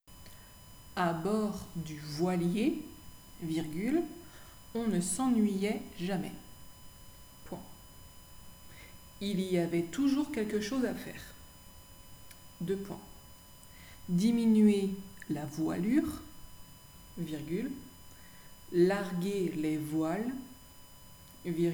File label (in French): dictee